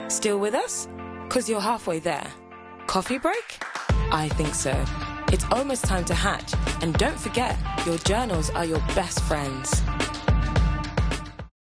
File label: UK